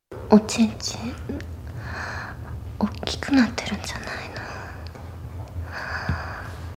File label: sexy voice